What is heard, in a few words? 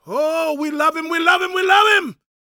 preachin, gospel preacher